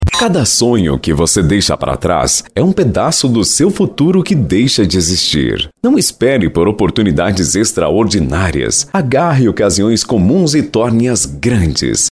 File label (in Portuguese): locução institucional; Banco De Vozes; Soluções Em Audio Produtora